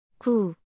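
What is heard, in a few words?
"구", Korean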